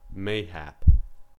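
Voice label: "mayhap", American English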